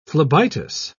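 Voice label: "phlebitis", British English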